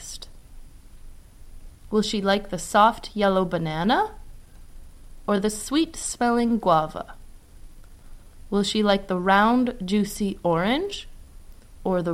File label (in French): anglais Handa audio txt original